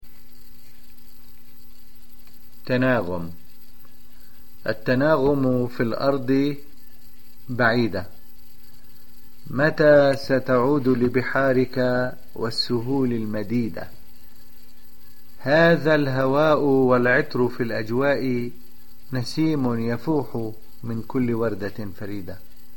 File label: arabic audio